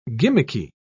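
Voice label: "gimmicky", British English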